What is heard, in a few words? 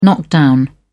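"knock-down", English, British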